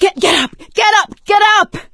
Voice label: revive friend loud, survivor voice; Left 4 Dead 2; producer

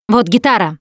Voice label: melee weapons, russian, Survivor Voice Producer

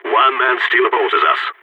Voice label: one enemy left, balkan; Counter-Strike Global Offensive

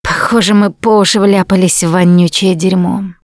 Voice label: going to die, russian; Producer

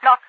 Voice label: block, overwatch radio voice; Garry's Mod; hl2

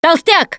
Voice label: warn boomer, russian, Survivor Voice Producer

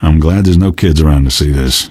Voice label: question, male; Garry's Mod; hl2

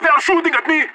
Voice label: radio taking fire; player vo leet

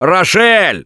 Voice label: friendly fire, russian, Survivor Voice; producer; Left 4 Dead 2; Gambler

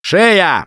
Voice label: warn spitter, russian; Voice; Gambler

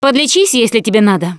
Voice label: suggest health, russian; Producer

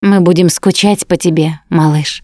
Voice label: survivor mourn mechanic, Russian; Voice; Producer

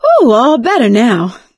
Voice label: pain relief first aid, survivor voice; Left 4 Dead 2; producer

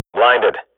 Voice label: radio bot post flash, Counter-Strike swat; Global Offensive; player vo

